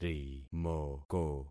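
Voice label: "rimoko", concatenated syllables; Affixing experiment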